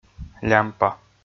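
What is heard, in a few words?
"лямпа", Komi, male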